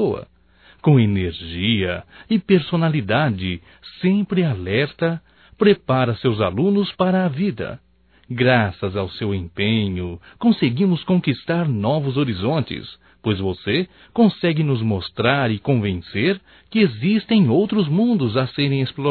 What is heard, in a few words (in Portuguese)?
HOMENAGEM AO PROFESSOR, Só Voz, masc; Telemensagens; HOMENAGENS